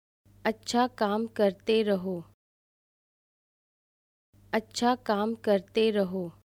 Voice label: Keep up the good work Hindi